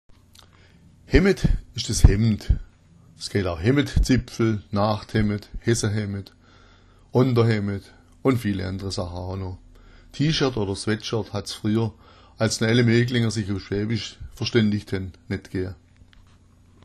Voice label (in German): hemmed, schwaebisch